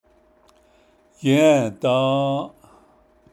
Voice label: navajo; past; yę́ędą́ą́’ past